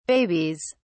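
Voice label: "babies", British English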